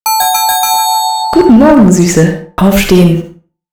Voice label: female wakeup